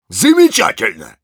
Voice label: Engineer cheers, ru; Замечательно.

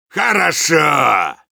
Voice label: Soldier positive vocalization

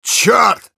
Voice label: Engineer negative vocalization, ru; Чёрт.

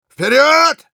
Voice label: Engineer battle cry; Вперёд!

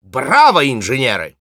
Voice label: Spy thanks for the teleporter, ru; «Браво, инженеры!»

